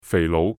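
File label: "肥佬", Cantonese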